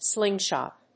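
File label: "slingshot", English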